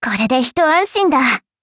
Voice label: victory, ja; cookie run